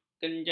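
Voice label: "kenjah", Malay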